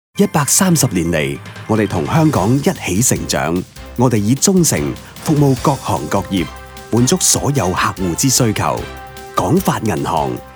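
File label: Cantonese Narration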